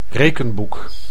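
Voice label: "rekenboek", Dutch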